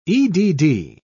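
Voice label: "edd", British English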